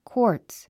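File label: "quartz", English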